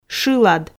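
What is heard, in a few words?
"шылад", Komi, female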